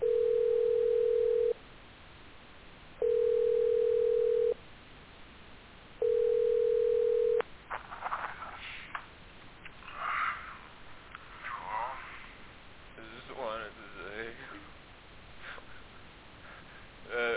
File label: PHONECALL